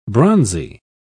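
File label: "bronzy", British English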